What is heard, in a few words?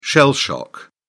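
"shell shock", British English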